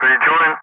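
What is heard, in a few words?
"rejoin", English; Ka-50; Wingman; Messages; Speech; DCS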